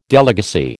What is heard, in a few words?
"delegacy", American